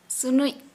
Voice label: Santali, mucus

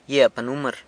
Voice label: "gyia panumr", San Lucas Quiavini; type of red flower

